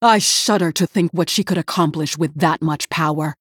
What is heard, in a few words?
Female Human In Game Turn; Cariel